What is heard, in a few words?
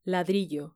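"ladrillo" Spanish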